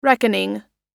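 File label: "reckoning", US English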